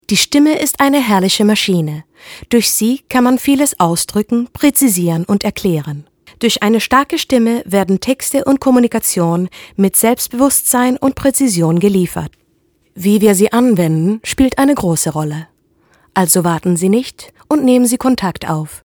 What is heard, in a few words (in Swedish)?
Tyska